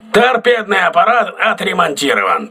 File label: voice message tt repaired, russian